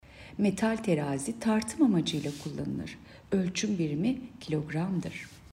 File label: turkce